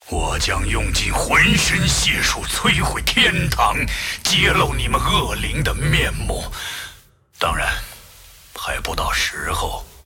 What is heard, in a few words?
taunt, zh; Aatrox